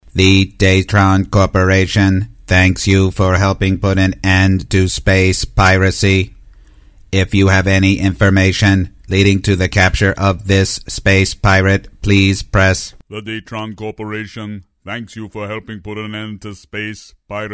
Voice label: ROBOT & COMPUTER; animation

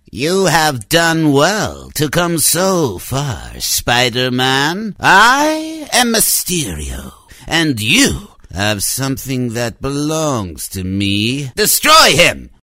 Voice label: NERD Evil Empowered, cartoon; animation

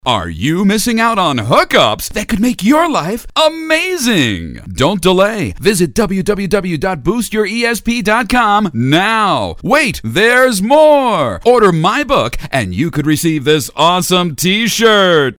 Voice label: Order Now, Spoof, commercials

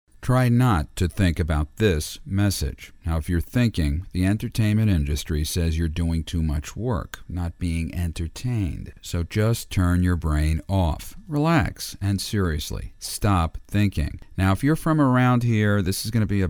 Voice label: One on One, Flat & Informative; commercials

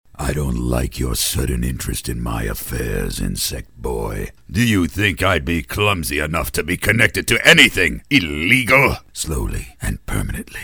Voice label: BOSS, Quiet, Sinister & Powerful; animation

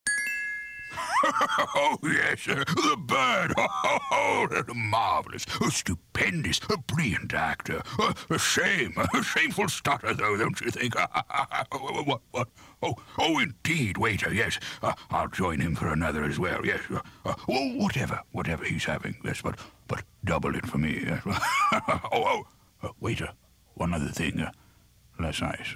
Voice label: Old British Hero; animation

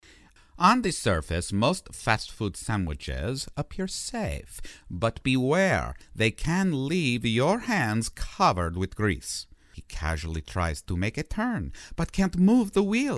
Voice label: FOREIGN ANNOUNCER Funny Off Beat; animation

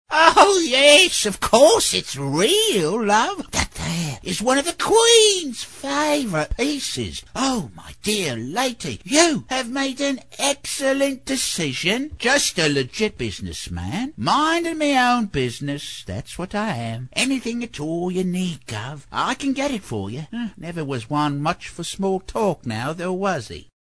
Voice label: Cockney, Pirate Trader, High; animation

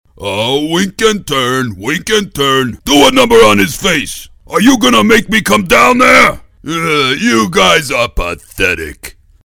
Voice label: GIANT- Dumb; animation

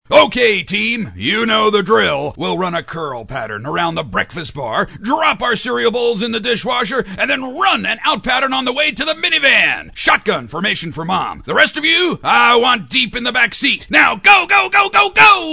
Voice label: Drill Sargent, Coach, commercials

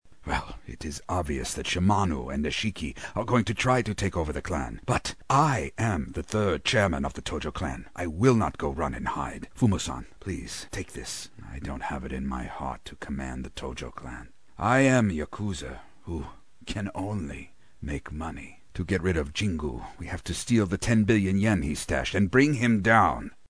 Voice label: Japanese Clan Boss, Quiet & Weak; animation

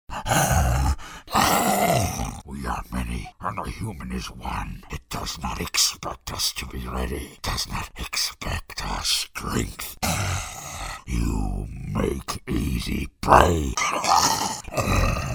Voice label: Alien Beast Creature of Death; animation

